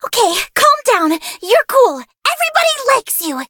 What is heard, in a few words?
battle, en; Cookie Run